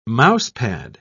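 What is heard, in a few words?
"mousepad", British English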